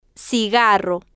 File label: "cigarro", spanish